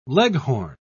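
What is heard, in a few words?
"leghorn", British English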